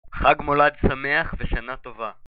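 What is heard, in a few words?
happy xmas hebrew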